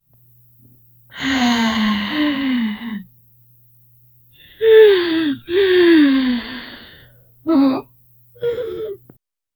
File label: realistic sound of a woma, trembling voice